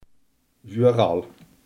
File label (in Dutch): "vooral", limburgse